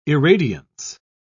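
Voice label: "irradiance", British English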